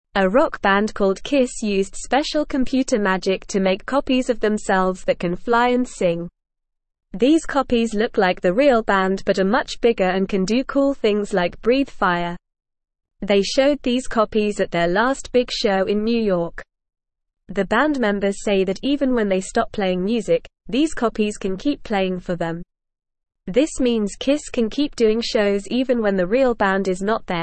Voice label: English Newsroom Beginner NORMAL Reading